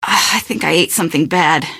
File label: question, female; Garry's Mod; hl2